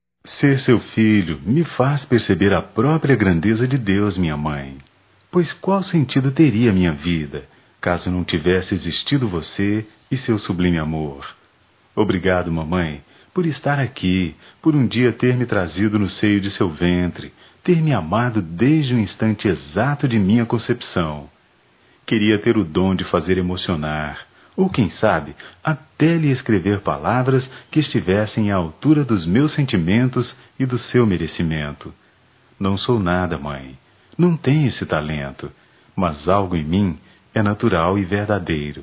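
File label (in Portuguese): filha para mãe, telemensagens, Dia das Mães; Telemensagens 2017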